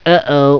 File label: uh oh